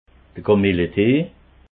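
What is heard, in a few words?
"kamilletee", Alsatian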